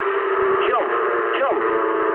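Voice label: English bailout mood low; War Thunder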